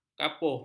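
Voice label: "kapuh", Malay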